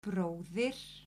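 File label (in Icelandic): "bróðir", Icelandic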